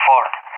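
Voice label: "Ford", English, Spain; CallSign; DCS; Allied Flight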